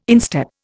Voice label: "instep", Indian